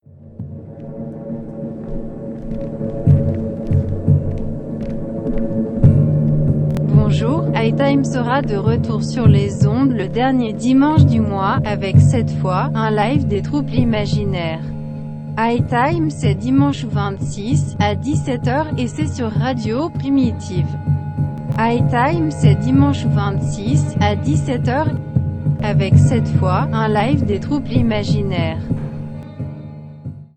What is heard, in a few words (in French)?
annonce